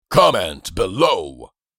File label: Comment below social media male